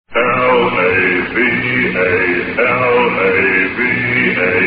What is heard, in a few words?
vintage commercials lava